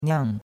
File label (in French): "niang4", chinois; Cours-de-Chinois